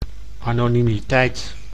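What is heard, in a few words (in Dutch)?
"anonimiteit", Dutch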